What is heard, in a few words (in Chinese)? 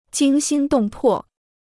"惊心动魄", Chinese